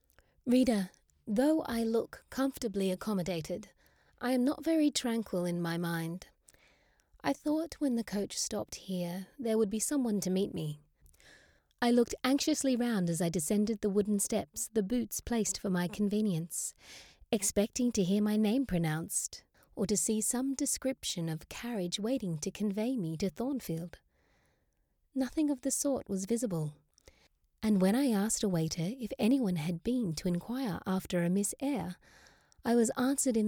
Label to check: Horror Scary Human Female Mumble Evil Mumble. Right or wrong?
Wrong